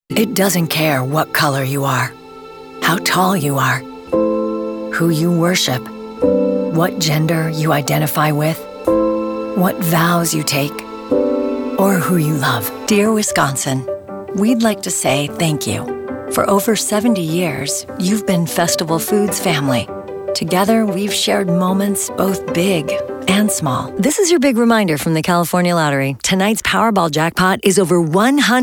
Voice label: Comml

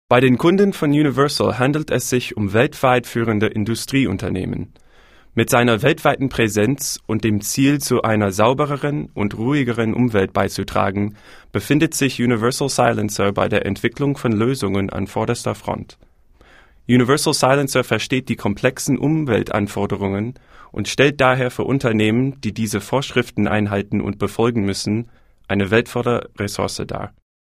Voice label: Deutsch

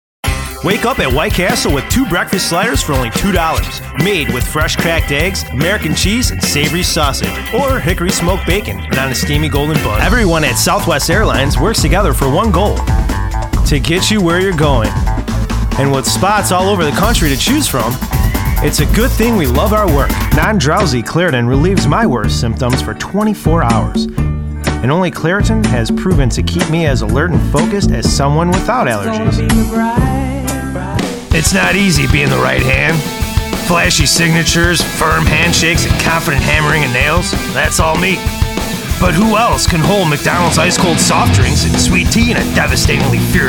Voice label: Commercial VO

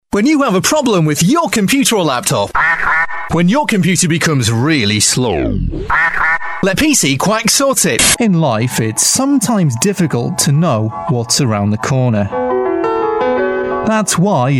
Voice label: UK Voiceover

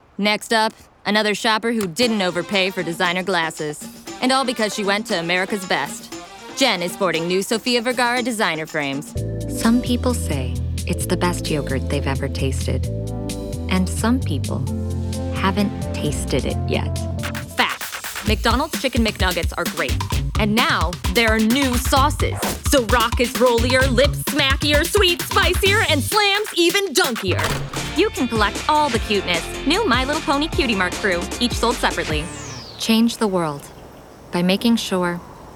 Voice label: comm VO

